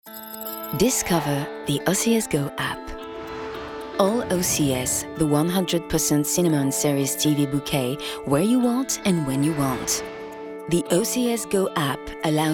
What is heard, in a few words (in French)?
tutoriel en anglais